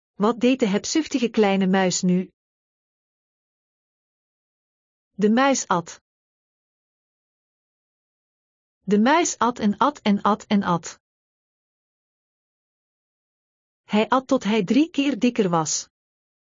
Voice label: Dutch Short Stories, De muis en de wezel; Aesops Fables